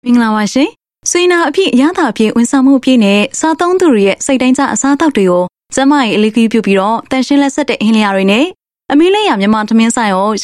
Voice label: Burmese Voice Sample Female

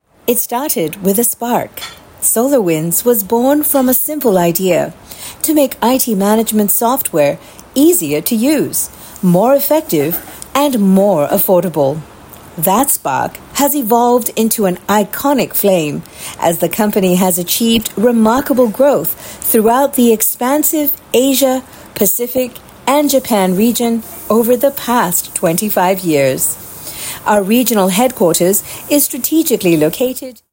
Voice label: Asian English Clean Read; Clean Demo Read

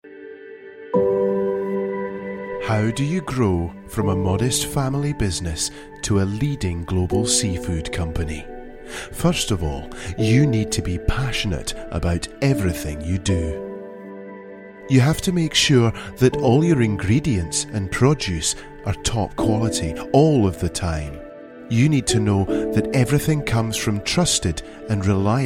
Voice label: UK English Scottish Accent, Male

